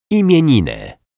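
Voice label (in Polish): "imieniny", Polish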